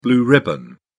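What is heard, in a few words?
"blue ribbon", British English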